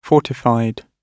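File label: "fortified", British English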